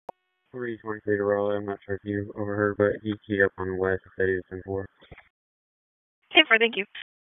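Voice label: Police Northeast District Disp, radio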